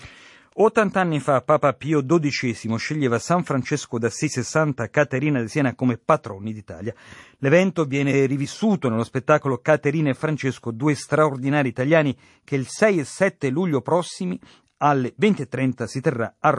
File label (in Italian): Radiogiornale